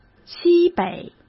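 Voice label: "西北", Mandarin Chinese; hsk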